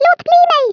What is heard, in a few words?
"leave me alone", Swedish, Speech; 11khz; International